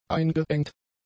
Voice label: "eingeengt", German; adjectives